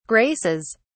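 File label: "graces", English, British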